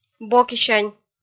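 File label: "бокисянь", Komi, female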